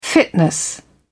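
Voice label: "fitness", British English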